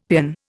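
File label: "peon", Indian English; Dictionary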